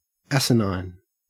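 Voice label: "asinine" English, Australian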